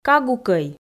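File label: "кагукӧй", Komi, female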